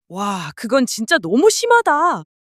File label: anger; monologue